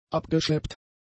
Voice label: "abgeschleppt", German; adjectives